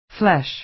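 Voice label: "flesh", American English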